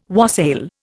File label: "wassail", Indian